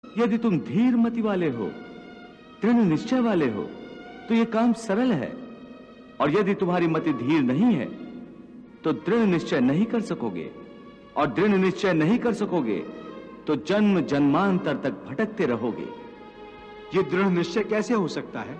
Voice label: jai shree krishna hindi dialogue